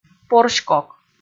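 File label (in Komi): "порськок" Komi, female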